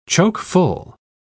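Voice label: "choke-full", British English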